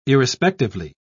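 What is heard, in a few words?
"irrespectively", British English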